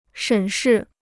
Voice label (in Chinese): "审视", Chinese